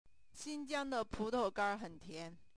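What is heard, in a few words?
putaoganr sentence, Mandarin Chinese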